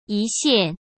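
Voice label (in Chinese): "一线", Mandarin Chinese; hsk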